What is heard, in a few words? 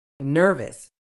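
"nervous", British English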